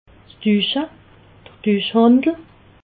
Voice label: "duche", alsace

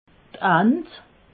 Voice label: "ant", alsace

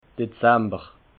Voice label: "dezamber", alsace

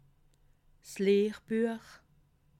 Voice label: "lehrbuech", alsace

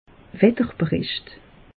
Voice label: "wetterbericht", alsace; meteo

